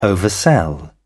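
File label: "oversell", English, British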